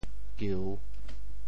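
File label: "kiu3", Teochew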